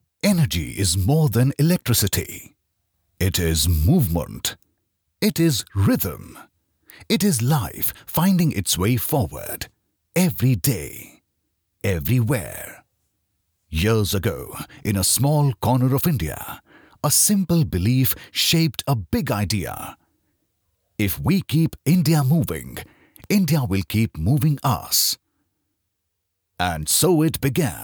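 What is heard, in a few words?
corporate english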